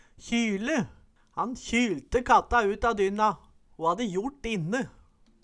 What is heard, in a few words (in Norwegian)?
kjyle, Numedalsmål